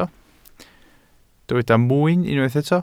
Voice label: "mwyn", Welsh

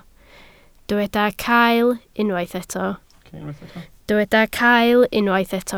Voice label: "cael", Welsh

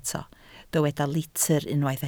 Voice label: "litr", Welsh

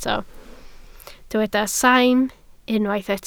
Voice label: "saim", Welsh

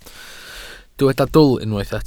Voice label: "dwl", Welsh

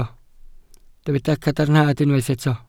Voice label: "cadarnhad", Welsh

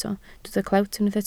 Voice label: "clewt", Welsh

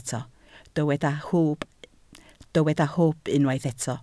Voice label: "hwb", Welsh

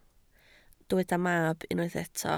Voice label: "mab", Welsh

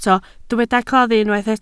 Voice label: "claddu" Welsh

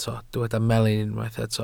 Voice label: "melin", Welsh

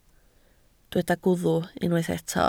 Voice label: "gwddf", Welsh

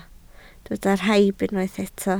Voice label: "rhaib", Welsh

